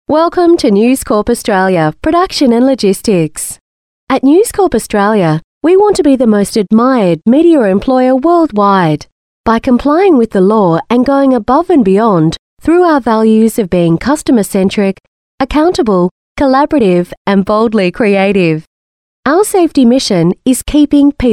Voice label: female talent corporate